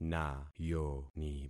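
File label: "nayoni", concatenated syllables; Affixing experiment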